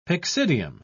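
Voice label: "pyxidium", British English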